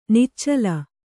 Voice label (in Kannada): "ನಿಚ್ಚಲು", Kannada